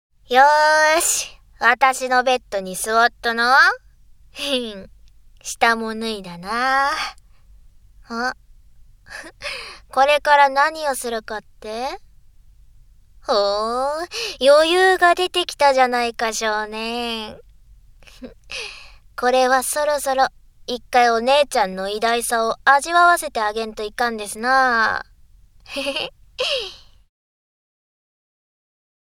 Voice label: お口でみっちりトレーニング（声のみ~ノイズ除去なし）; 酔いどれお姉ちゃんととれーにんぐ; ダミーヘッド&ダミーボディマイク使用

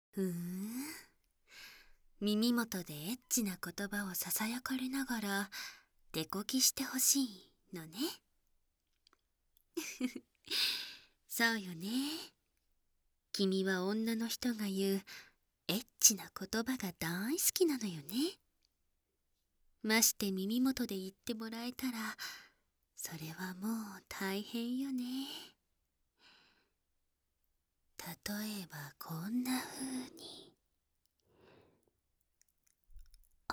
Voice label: ささやき淫語手コキ, ASMR

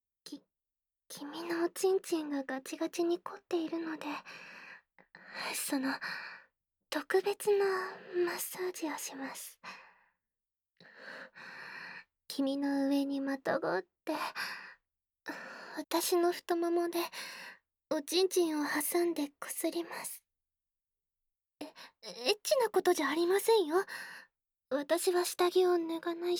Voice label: ディープキス・素股・耳舐め・耳舐め素股, ASMR; おまたがこすれてパンツが濡れちゃいました

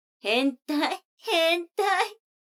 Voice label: 女の子 台詞, ASMR

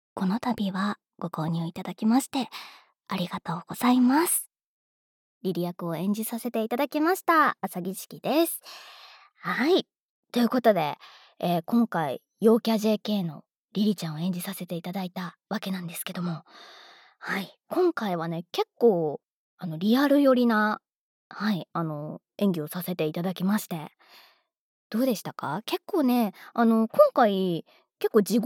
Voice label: フリートーク

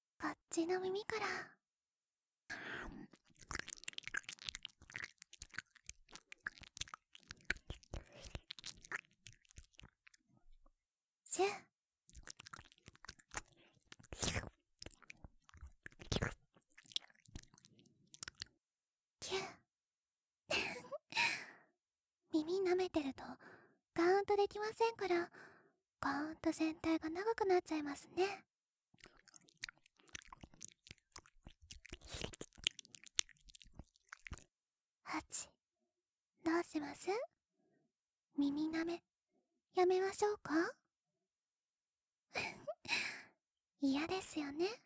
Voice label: 耳責め, ASMR; left

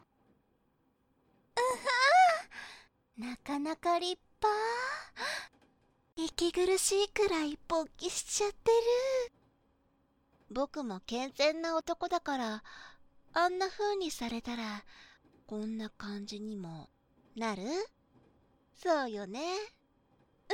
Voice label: 耳舐め, ASMR; シーン2:車内でそこまでしちゃう?耳舐めからの……

